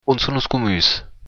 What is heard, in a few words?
toilet, occitan